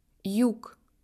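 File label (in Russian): "sud", Russian; phonetique et graphie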